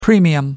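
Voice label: "premium", British English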